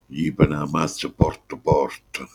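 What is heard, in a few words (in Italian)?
"porta", Manfredonia dialect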